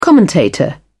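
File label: "commentator", English, British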